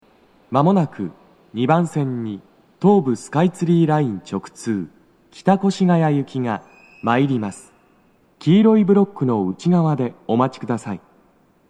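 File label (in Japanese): 接近放送, 男声, 六本木駅; 放送は「北越谷行」です。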